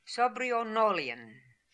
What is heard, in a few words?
"Sobrionolian", pronounciations; Fourth Way; Beelzebub